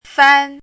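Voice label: "fān", Chinese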